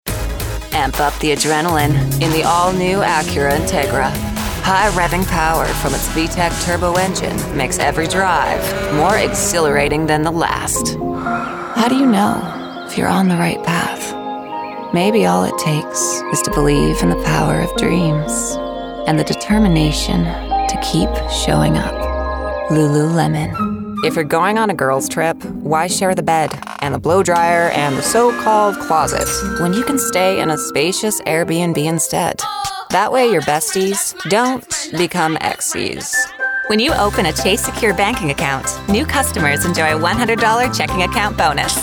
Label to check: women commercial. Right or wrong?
Right